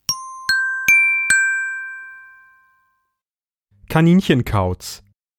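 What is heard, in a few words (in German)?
"Kaninchenkauz", Deutsch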